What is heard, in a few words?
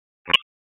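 Raleigh Police Northeast District Tactical, p25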